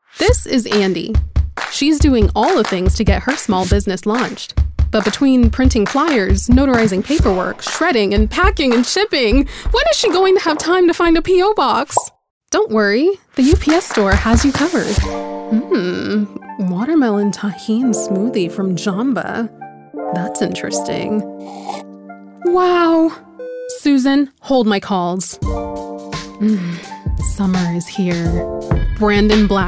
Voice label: Commercial, female voiceover; new voice over artist